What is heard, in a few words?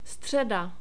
"streda", Czech